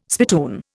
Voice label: "spittoon", Indian

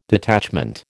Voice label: "detachment", American

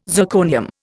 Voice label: "zirconium", Indian

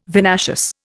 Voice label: "vinaceous", Indian

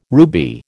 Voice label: "ruby", American

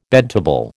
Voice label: "bedtable", American

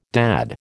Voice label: "dad", American

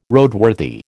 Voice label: "Roadworthy", American

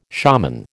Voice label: "shaman", American

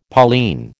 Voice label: "Pauline", American

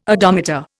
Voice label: "odometer", Indian

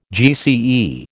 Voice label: "GCE", American

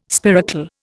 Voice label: "spiracle", Indian

